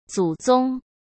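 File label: "祖宗", Mandarin Chinese; chinese flashcards; hsk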